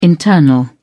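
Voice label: "internal", British English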